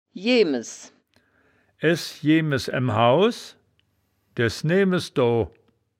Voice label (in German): "jeemes", wittlich